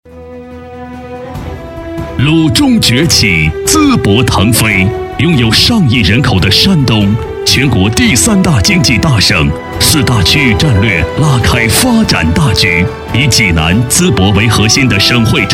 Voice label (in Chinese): 男, 宣传片, 家居博览城; 山东五洲国际